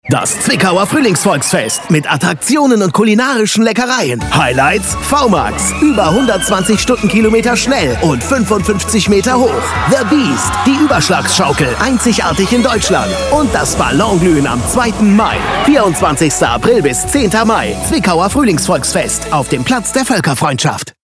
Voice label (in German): spot radio; fruehlingsfest 2020 zwickau